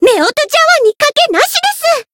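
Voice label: Voice variable chant, Japanese; myungwha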